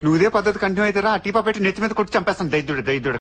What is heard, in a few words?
Funny; Khaleja